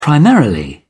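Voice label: "primarily", British English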